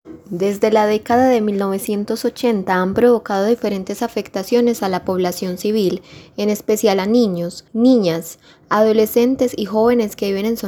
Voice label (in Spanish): Narradora; casting trineo tv